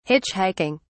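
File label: "hitchhiking", British English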